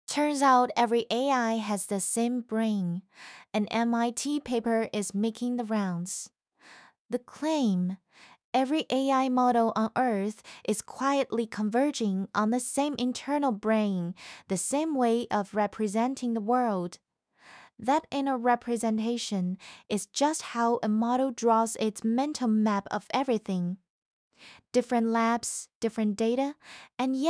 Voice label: audiobook en; every ai same brain